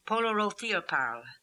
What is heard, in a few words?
"Polorotheoparl", pronounciations; Fourth Way; Beelzebub